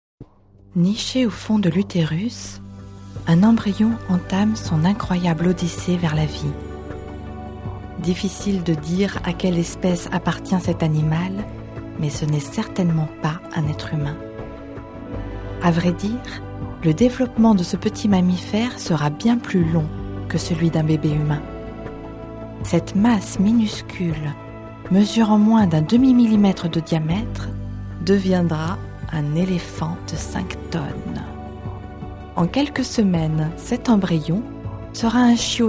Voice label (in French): F documentaire; FR; Français